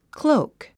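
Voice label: "cloak", 英単語 発音; klóuk クローゥク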